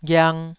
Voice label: "ghiang2", Taiwanese